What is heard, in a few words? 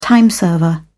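"time server", British English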